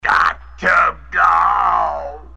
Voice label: grck trrr dough, gibbrish; Voice